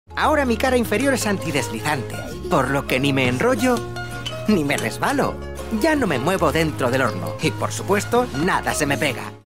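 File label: Spanish European, Characters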